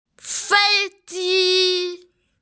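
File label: "fei ji", Mandarin Chinese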